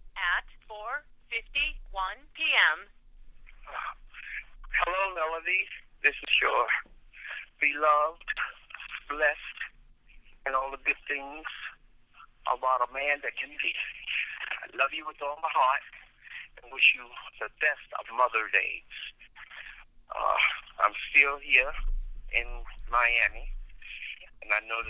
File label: voicemail; Happy Mother's Day